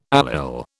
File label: "allyl", British